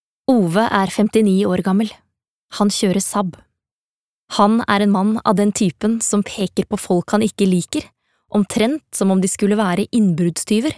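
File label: no audiobook, Norwegian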